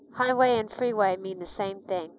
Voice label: speech est